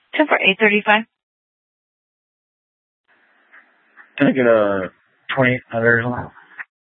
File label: Sheriff, scanner P25